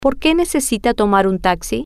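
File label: Porque Necesita Tomar Taxi, Spanish, female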